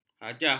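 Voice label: "hajah", Malay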